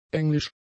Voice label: "englisch", German; adjectives